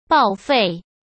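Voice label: "报废" Mandarin Chinese; chinese flashcards; hsk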